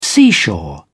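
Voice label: "seashore", British English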